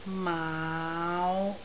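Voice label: "maau5", Cantonese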